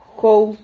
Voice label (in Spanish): "jou", Silabas